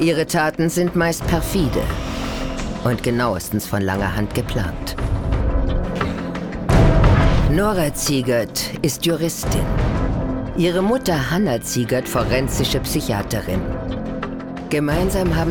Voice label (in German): Kommentar, frauen; Mörderische Frauen